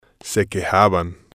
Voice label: "se quejaban", Spanish